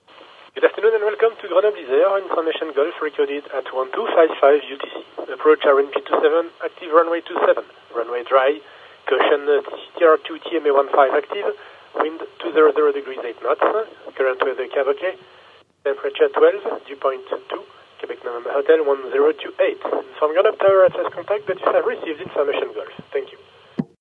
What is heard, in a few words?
ATIS; LFLS